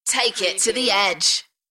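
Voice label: UK female, DJ Vocal; beanstalk-audio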